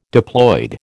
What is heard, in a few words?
"diploid", American